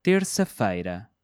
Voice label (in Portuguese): "terça-feira", portuguese